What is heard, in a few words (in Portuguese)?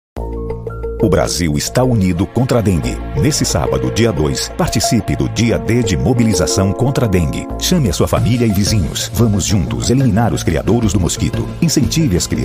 spot; mim saude dia d dengue